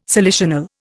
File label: "salicional", Indian